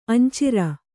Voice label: "ಅಂಚಿರ", Kannada

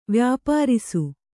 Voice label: "ವ್ಯಾಪಾರಿಸು", kannada

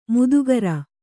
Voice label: "ಮುದುಗರ", Kannada; mudugara; noun

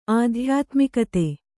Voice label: "ಆಧ್ಯಾತ್ಮಿಕತೆ", Kannada

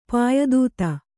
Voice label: "ಪಾಯದೂತ", Kannada

